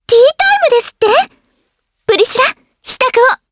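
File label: vox dialogue, voices, Japanese; kingsraid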